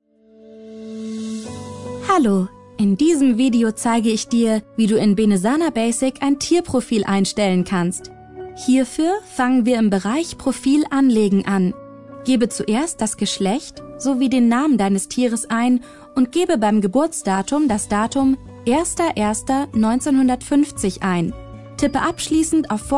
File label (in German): Werbung; Benesana